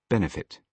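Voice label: "benefit", British English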